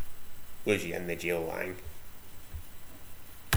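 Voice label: wis, slang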